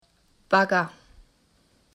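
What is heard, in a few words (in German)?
"Bagger", German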